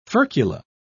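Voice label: "furcula", British English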